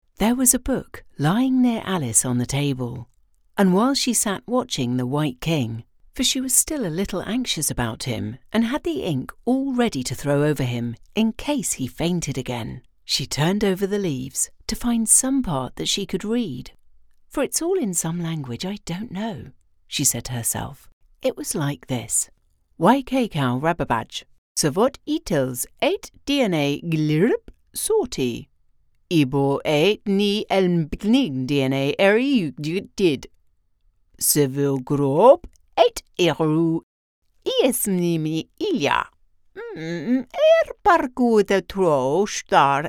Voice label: english british audiobooks